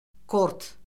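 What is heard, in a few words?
"kort", Azerbaijani; Orfoqrafiya Lüğəti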